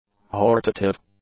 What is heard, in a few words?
"hortative", English; adjectives